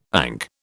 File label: "ANC", British